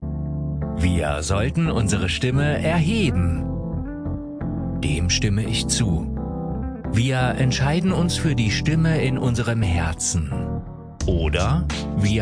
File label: German Voice Over bass voiceover promotion